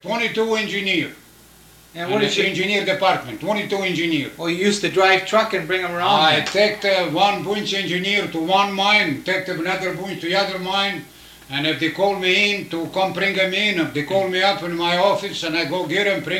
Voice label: Personal history with 88 year old male Eveleth Minnesota; american english dialect